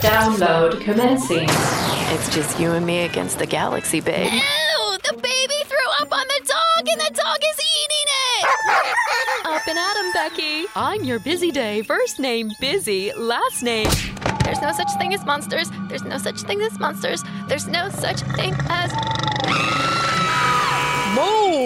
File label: animation